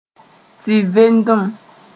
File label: "tɩ-vendem", Moore